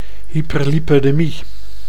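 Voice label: "hyperlipidemie", Dutch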